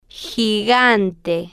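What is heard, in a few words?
"gigante", slow, spanish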